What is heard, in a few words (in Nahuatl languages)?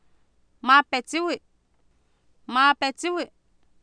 "maa petsiwi", Oapan, female; Oapan minimal pairs 2000